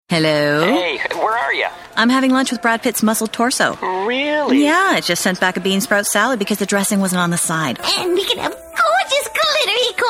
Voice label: commercial and character